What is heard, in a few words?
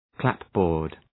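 "clapboard", English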